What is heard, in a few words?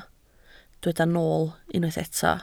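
"nool" Welsh, spoken word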